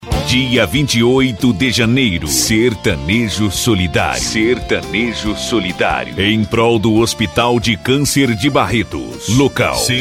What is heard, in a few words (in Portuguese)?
Spot Voz